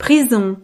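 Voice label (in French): "prison", French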